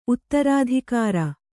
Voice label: "ಉತ್ತರಾಧಿಕಾರಿ", Kannada